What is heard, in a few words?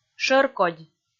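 "шӧркодь", Komi, female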